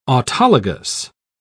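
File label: "autologous", English, British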